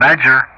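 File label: "Badger", English; Ka-50; Player Callsign; DCS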